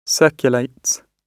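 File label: "circulates", British English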